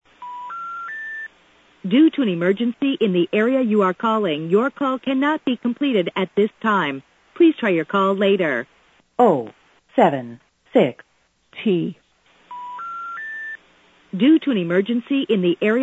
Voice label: Due To An Emergency, telephone sounds, modern north american telephone sounds; AT&T general error recordings